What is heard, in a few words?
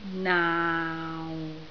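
"naau6", Cantonese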